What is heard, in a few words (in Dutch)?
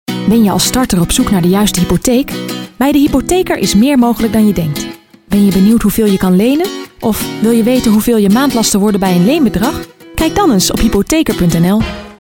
Stemdemo Menselijk